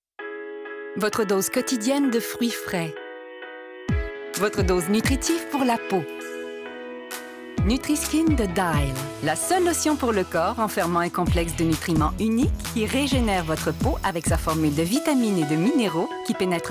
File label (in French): Voix Annonceur